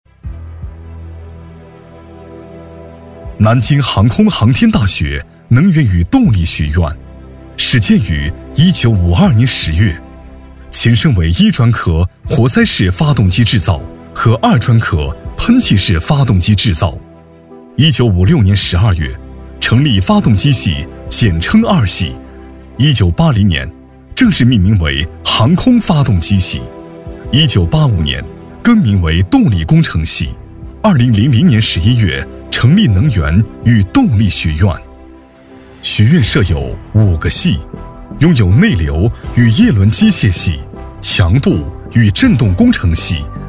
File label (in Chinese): 男 学校专题 能源与动力学院; 南京航空航天大学能源与动力学院